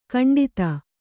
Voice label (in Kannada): "ಖಂಡಿತ", Kannada